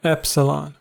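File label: "epsilon", US English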